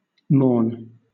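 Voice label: "mourn", British English; pronunciations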